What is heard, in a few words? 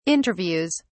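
"interviews", British English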